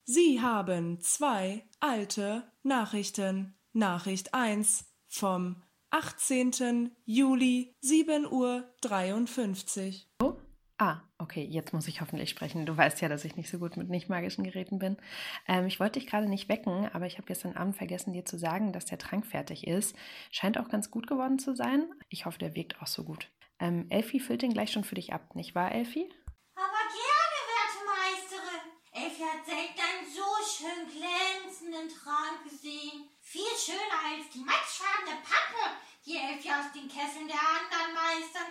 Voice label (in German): Ansage Mailbox